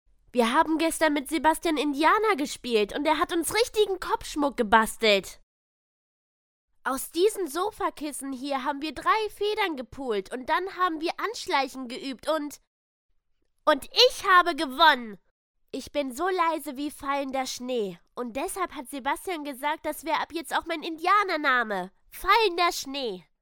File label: german boy female; dictor